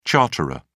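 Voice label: "charterer", British English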